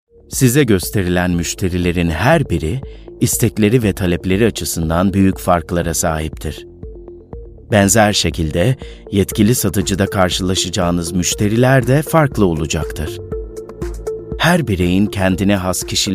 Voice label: Turkish male corporate

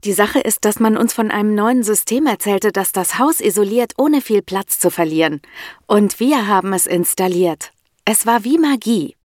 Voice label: German voice natural

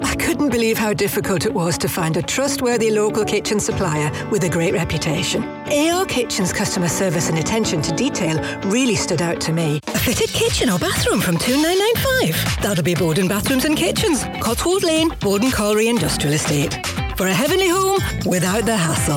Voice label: geordie commercial

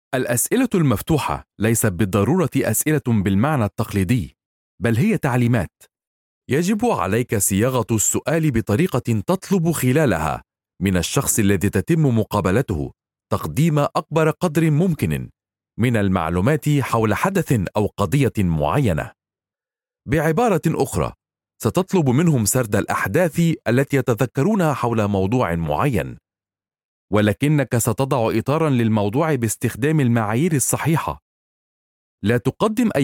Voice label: Arabic voice elearning

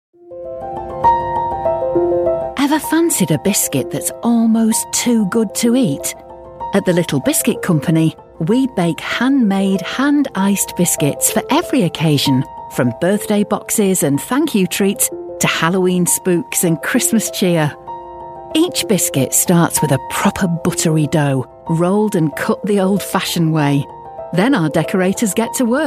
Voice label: commercial northern

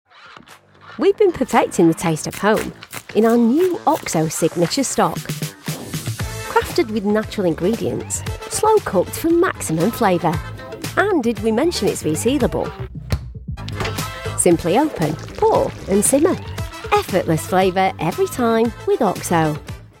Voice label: commercial

